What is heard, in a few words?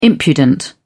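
"impudent", British English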